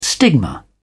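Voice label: "stigma", British English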